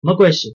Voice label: you're welcome shanghainese

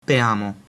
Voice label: i love you, latin

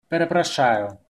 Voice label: excuse me, belarusian

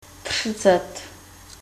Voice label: thirty, czech

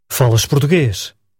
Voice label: do you speak, portuguese

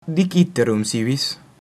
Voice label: say again, latin

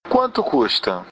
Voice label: how much, portuguese br

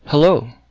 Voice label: hello, cebuano

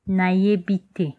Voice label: I don't know, lingala; Na yebi té

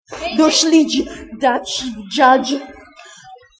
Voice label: birthday, klingon

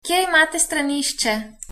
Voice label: toilet, slovenian

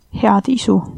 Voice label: bon appetit, estonian

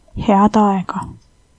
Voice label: goodbye, estonian

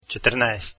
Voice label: 14 croatian, numbers

